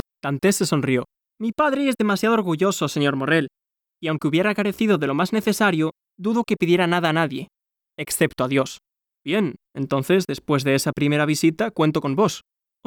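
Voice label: Spanish European M Audiobook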